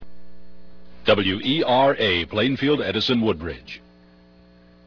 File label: Legal ID; bta500r